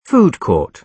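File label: "food court", British English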